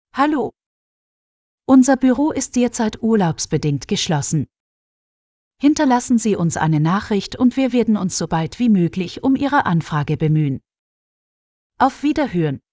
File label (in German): Urlaub generisch VM FEMALE